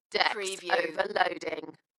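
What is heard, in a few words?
UK female, DJ vocals; Decks overloading; Dalekness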